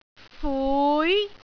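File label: "fui2", Cantonese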